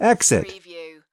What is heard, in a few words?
"exit", US male; lynne publishing